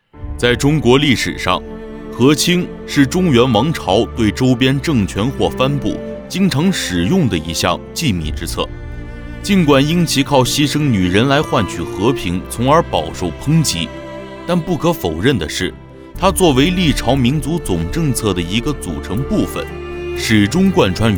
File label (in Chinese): 纪录片; 和亲